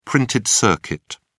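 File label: "printed circuit", British English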